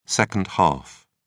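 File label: "second half", British English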